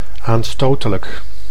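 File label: "aanstotelijk", Dutch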